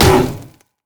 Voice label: bear stance roar; LoL; udyr